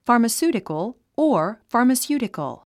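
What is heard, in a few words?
"pharmaceutical", English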